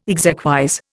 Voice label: "exequies", Indian